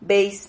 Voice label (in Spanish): "veis", Silabas